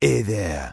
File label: Troll Male Shaman NPC Greeting